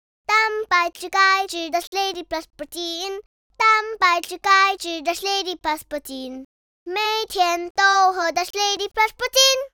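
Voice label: Mandarin COLD VOX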